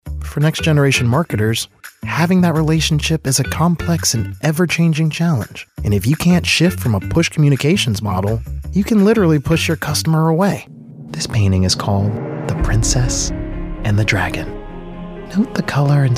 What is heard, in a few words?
Narration